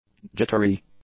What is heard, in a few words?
"jittery", english; adjectives